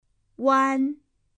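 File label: "uan", Chinese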